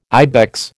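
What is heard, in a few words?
"ibex", American English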